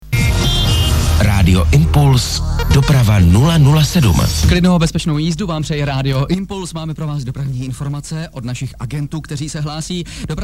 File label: radio czech id only; radio impuls